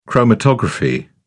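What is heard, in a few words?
"chromatography", British English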